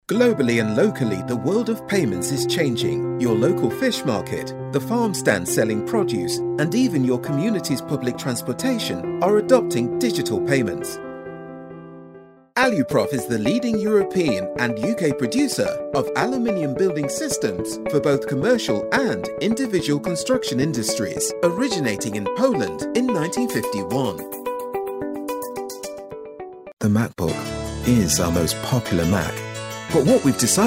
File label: Corporate